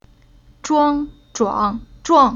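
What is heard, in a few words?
"Zhuang", chinese syllable; Уроки фонетического блока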